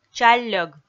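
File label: "чалльӧг", Komi, female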